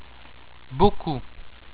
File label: "beaucoup", french